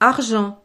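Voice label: "argent", French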